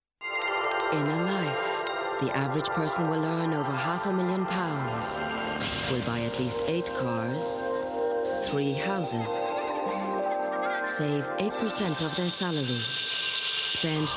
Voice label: Commercial Reel